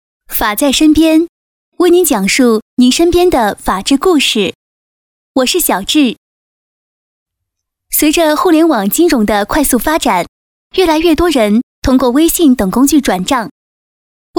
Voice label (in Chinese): 女 专题; 微信借款--您不得不知道的事